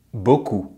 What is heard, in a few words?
"beaucoup", French; Consonantes en francés; easypronunciation